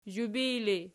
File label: "zhubij", Archi